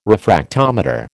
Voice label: "refractometer", American

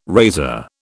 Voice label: "razor", British

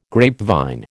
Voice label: "grapevine", American

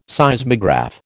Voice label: "Seismograph", American

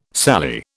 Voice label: "sallee", British

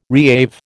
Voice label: "reave", American

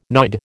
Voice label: "nod", American

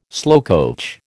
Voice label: "slowcoach", American

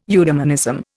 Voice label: "eudemonism", Indian

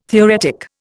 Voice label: "theoretic", Indian

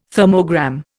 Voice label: "thermogram", Indian

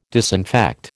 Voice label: "disinfect", American

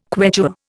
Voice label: "Quechua", Indian

